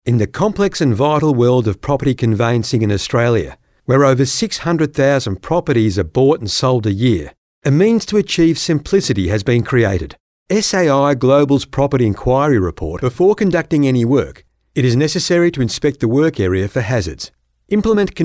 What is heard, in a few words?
Corporate; Voice Overs